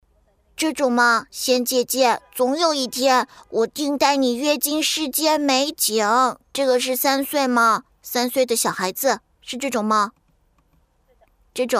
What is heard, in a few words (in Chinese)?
女 中文童声 三岁男童